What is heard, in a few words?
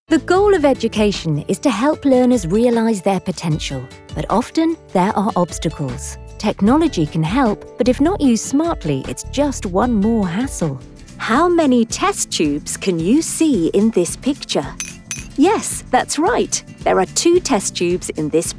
Corporate and E Learning